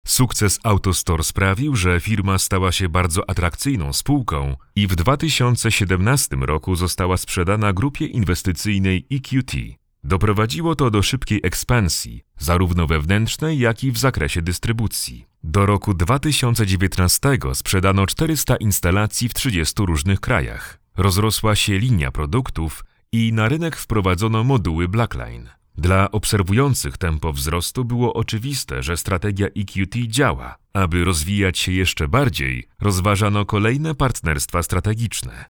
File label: polish corporate videos